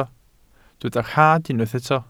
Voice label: "rhad", Welsh

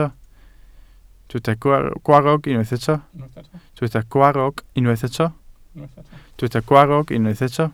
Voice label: "gwarrog", Welsh

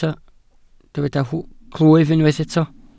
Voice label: "clwyf", Welsh

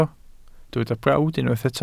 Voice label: "brawd", Welsh

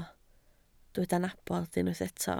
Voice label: "nabod", Welsh

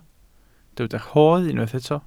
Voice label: "rodd", Welsh

